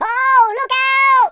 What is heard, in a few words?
grenade, Footy Fan speech